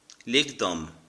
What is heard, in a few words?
go away, irish